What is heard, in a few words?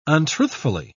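"untruthfully", English, British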